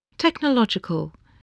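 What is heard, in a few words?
"technological", English word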